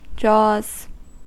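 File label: "jaws", US English; pronunciations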